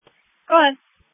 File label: Police Dispatch